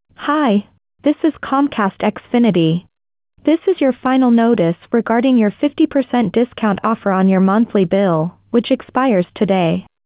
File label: Cable TV offer Script, AI-generated audio; Comcast; 1 in 4 calls reviewed by hiya contain ai generated audio